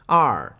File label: "Er", two